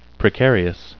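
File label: "precarious", English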